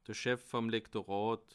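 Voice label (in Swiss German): "chef", Bas Rhin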